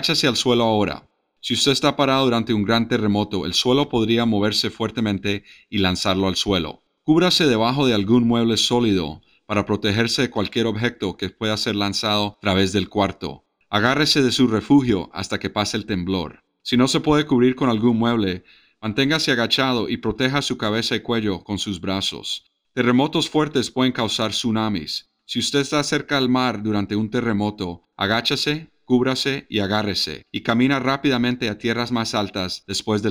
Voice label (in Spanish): Transmision Del Simulacro Radio Sin Efectos Sonoros; ShakeOut